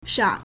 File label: "shang3", Chinese